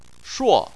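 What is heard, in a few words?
"shuo", Chinese, fourth tone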